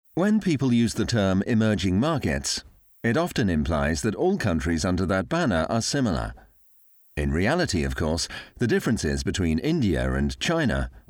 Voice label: eLearning British Male; Narration, eLearning, International Voiceover, British